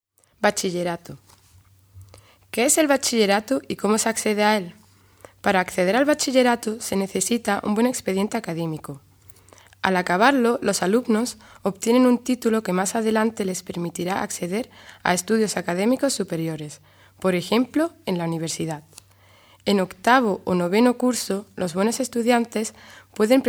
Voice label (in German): mittelschule spanisch